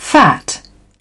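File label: "fat", British English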